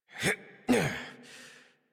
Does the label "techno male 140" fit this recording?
No